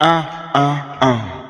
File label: "uh uh uh" male, Voice